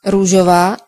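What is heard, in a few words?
pink, Serbian; gcompris; colors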